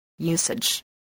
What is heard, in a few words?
"usage", English